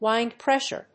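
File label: "WIND-PRESSURE", English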